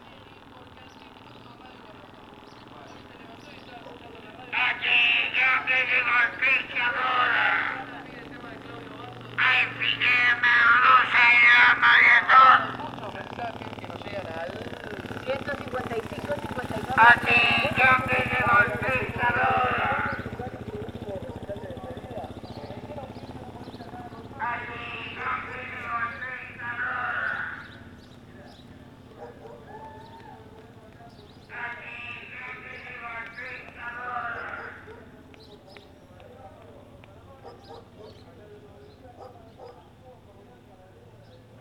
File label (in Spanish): vendedor pescados barrio las flores